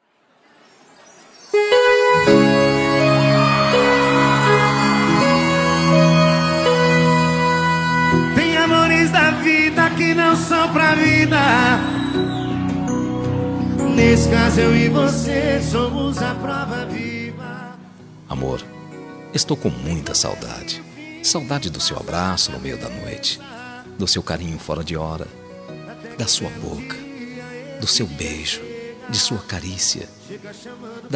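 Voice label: male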